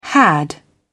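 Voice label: "had", British English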